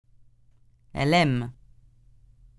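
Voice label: "Elle aime", French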